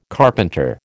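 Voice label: "carpenter", American English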